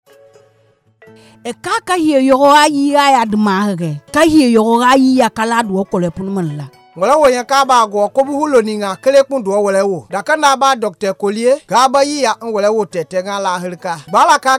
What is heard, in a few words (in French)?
spot Zero Ebola Guerze